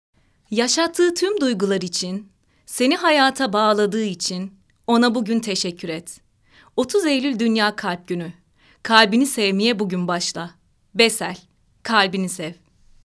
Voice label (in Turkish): REKLAM